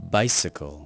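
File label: "bicycle", spoken word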